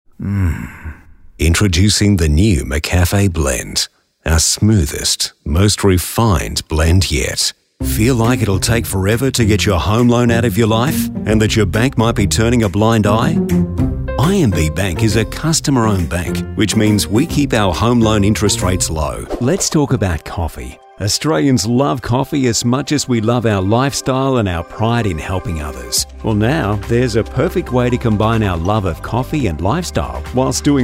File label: Voice Demo